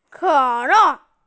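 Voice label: "cola", Mandarin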